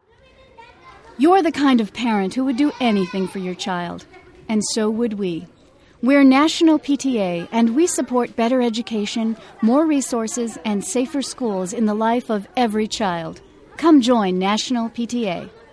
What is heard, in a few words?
Voice Over